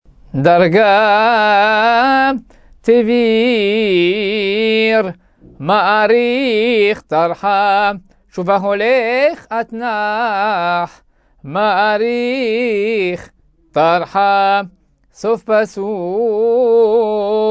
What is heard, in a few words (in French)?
apprentissage taamim selon le rite constantinois